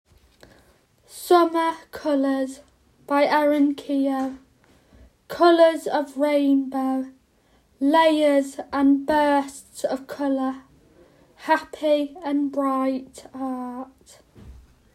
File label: audio description; Summer colours